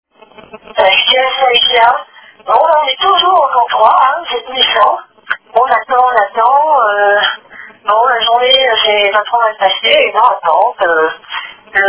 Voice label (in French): Appel telephonique